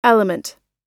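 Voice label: "element", English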